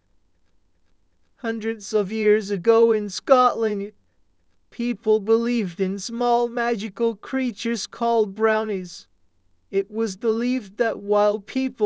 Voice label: SAD